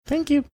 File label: cute thank you